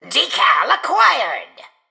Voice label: Male Mechagnome Emote